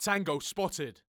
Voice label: Tango Spotted, British Soldier Voices; 344 Audio